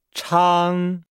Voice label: "chang", Nihao